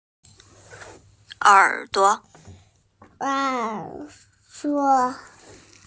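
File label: "er3duo5", Mandarin Chinese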